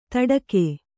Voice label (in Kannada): "ತಡಗು", Kannada